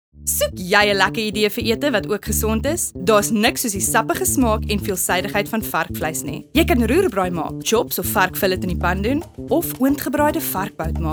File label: Afrikaans female